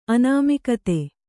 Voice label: "ಅನಾಮಿಕತೆ", Kannada